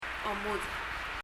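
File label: "omudech", Palauan; vomit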